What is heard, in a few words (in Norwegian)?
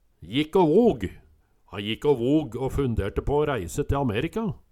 jikk å vog, Numedalsmål; Han jikk å vog å funderte på å ræise te Amerika